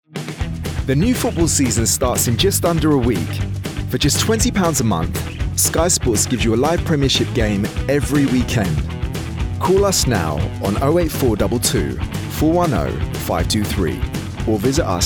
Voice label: male english sports commercial; sky sports; Devine Voices